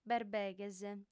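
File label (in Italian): "berbeghes", lingua sarda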